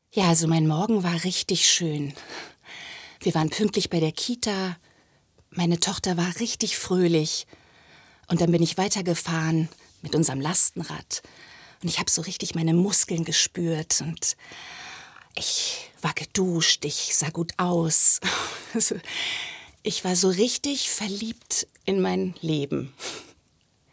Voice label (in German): Natuerliche Stimme